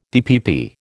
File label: "DPP", American